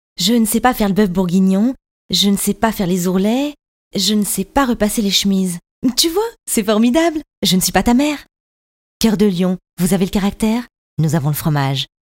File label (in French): pub TV; CŒUR DE LYON